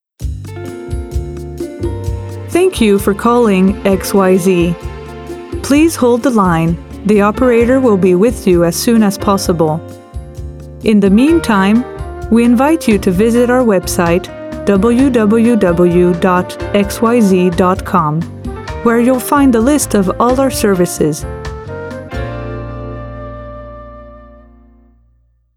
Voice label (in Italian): madrelingua inglese